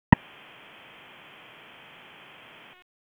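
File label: Raleigh Police Northeast District Dispatch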